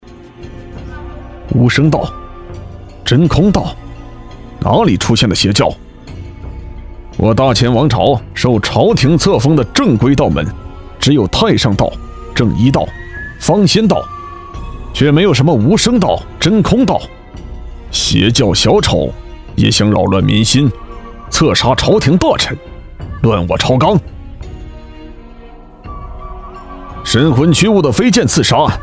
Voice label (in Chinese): 男 角色 古装中年人